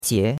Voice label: "qie2", chinois; Cours-de-Chinois

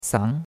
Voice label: "sang2", chinois; Cours-de-Chinois

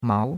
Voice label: "mao2", chinois; Cours de Chinois

